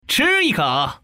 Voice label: common man